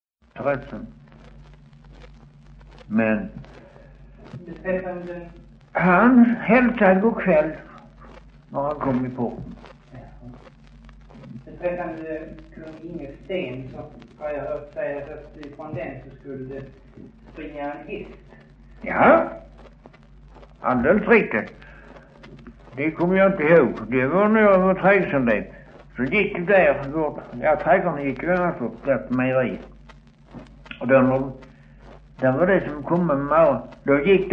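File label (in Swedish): Östra Ingelstad Skåne, Svenska sagor dialekter; Folkminnen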